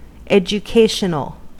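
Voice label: "educational", US English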